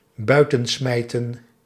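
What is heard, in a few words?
"buitensmijten", Dutch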